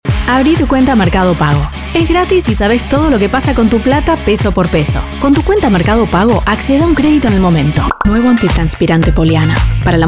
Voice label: rioplatense

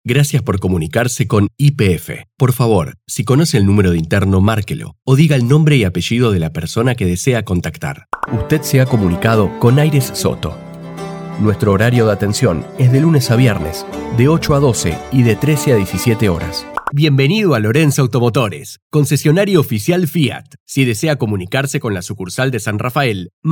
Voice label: telefonía

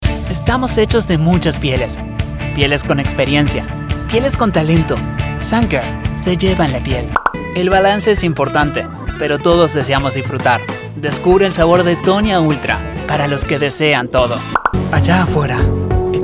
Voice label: neutro, espanol-neutro

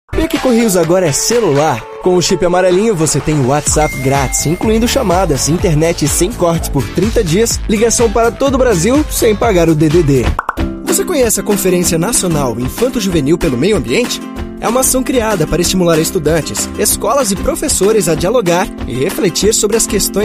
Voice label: portugues

